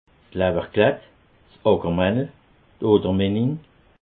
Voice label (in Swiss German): "lawwerklett", alsace